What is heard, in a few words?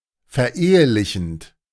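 "verehelichend", German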